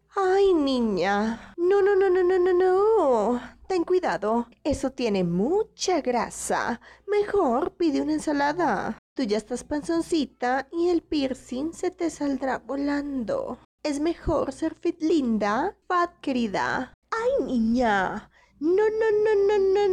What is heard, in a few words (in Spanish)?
chica fila voz; personajes chica carton